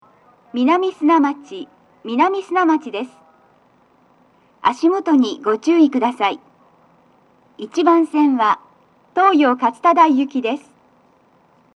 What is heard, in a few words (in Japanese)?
toucyaku, 到着放送 【女声】; 1番線 西船橋・東葉勝田台・津田沼方面; 足元注意喚起放送が付帯されており; 放送は「各駅停車 東葉勝田台行」です。